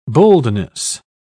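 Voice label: "boldness", British English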